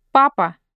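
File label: "Dad", Russian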